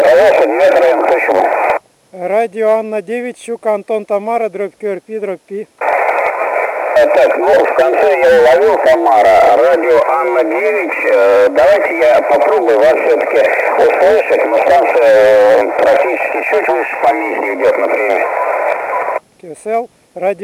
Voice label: qso